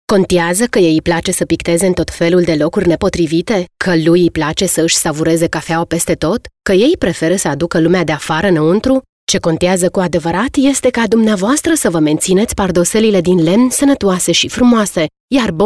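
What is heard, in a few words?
Romanian, female, explainer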